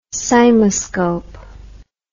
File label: "cymoscope", english